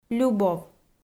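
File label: "любов" Komi, female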